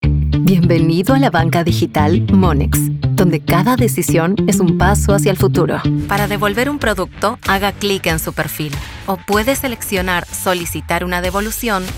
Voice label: spanish latin american announcements, argentinian spanish